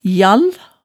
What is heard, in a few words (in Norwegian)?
"jall", hemsedalsmaalet